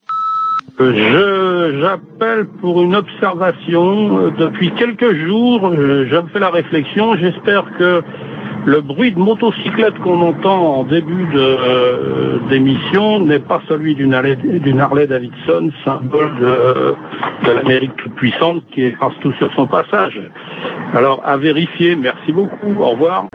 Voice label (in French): Generique repondeur